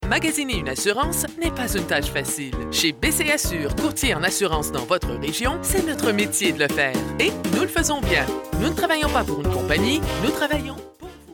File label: radio